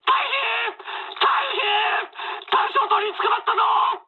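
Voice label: searchlite mood med, speech japanese; War Thunder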